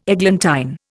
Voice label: "eglantine", Indian